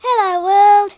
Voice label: hello, speech, Hippy

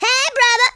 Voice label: watch this, Blues Man speech

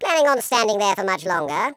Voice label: "hello", Patronising speech

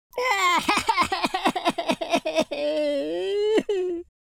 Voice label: sad, London Cabbie; speech

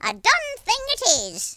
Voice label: "yes sir", Irish; speech

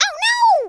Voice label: "take cover", Cowgirl; speech

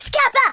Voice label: run away, speech Italian

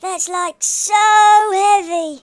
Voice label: oh dear, speech, Hippy

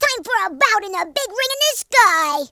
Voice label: bye bye, American Wrestler

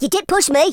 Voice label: "dragonpunch", Teenage Angst speech

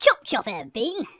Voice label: "boring", speech; Biggles

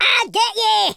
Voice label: "i'll get you", Scotsman; speech

